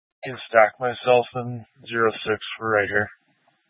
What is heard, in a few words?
Police Dispatch; Police 1 Dispatch